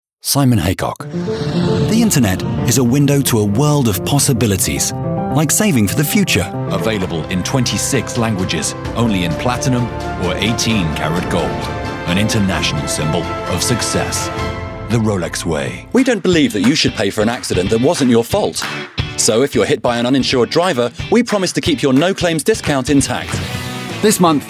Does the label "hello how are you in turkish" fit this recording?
No